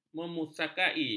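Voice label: "memusakai", Malay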